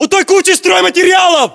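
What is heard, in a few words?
landmark near pile lumber, Russian; localized english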